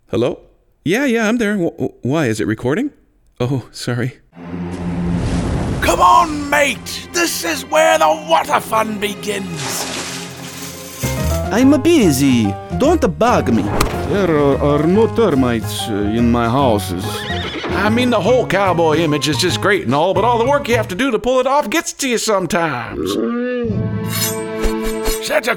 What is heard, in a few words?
character